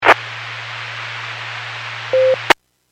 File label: Skywarn 146.67; Stevens Point